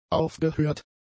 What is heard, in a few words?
"aufgehört", German; adjectives